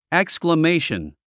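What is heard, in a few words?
"exclamation", English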